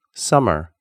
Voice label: "summer", English

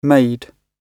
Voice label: "made", English

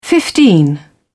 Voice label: "fifteen", English

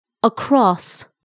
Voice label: "across", English

